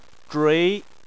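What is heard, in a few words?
"zhui" Chinese, second tone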